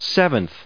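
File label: "seventh", US English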